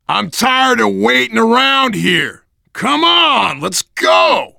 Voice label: move on, voice, biker; Left 4 Dead 2; left4dead2 dlc3; survivor

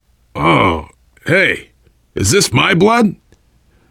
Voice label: getting revived, voice, biker; Left 4 Dead 2; left4dead2 dlc3; survivor

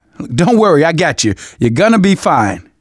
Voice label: revive friend, survivor voice manager; Left 4 Dead 2; left4dead2 dlc2

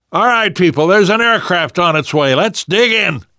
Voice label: radio used plane, namvet, survivor voice; Left 4 Dead 2; left4dead2 dlc3

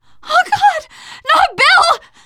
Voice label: grief, teen girl

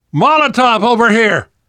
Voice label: spot grenades, survivor voice; namvet; Left 4 Dead 2; left4dead2 dlc2

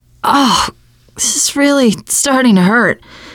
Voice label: going to die, teengirl, voice; player; survivor

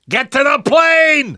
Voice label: arrive plane, survivor voice; namvet; Left 4 Dead 2; left4dead2 dlc2

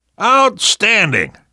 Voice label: reaction positive, survivor voice; Left 4 Dead 2; left4dead2 dlc3

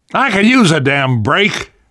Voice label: safe spot ahead reaction, namvet, survivor voice; Left 4 Dead 2; left4dead2 dlc3

